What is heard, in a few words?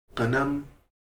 woman female, Heiltsuk